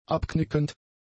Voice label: "abknickend", German; adjectives